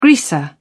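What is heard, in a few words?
"greaser", British English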